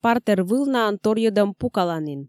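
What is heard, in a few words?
"партер вылнаын торйӧдӧм пукаланін", Komi, female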